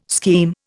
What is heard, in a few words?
"scheme", Indian